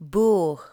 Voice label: "boer" english

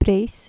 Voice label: "prijs", Dutch

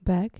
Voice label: "bag", dutch

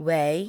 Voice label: "wei", english

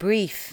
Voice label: "brief" english

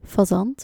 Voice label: "fazant", Dutch

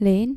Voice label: "lijn", Dutch

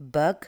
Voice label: "bug" english

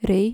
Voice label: "rij" dutch

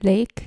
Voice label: "lijk", Dutch

